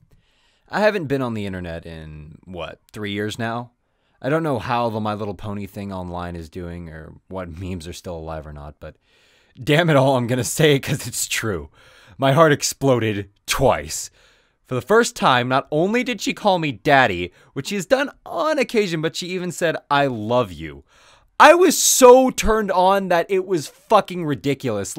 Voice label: Dramatic Reading Blooper; SHE CALLED ME DADDY